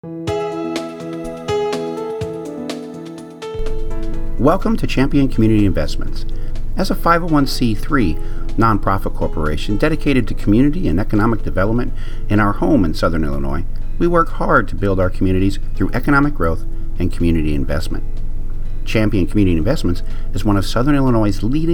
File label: Narration